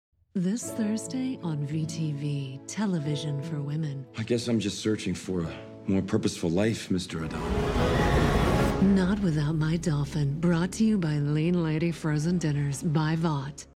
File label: english american tv shows